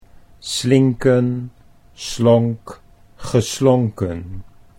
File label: "slinken slonk geslonken", Dutch